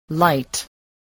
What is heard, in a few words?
"light", spelling